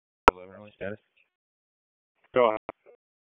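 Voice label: Police Northeast District Disp, radio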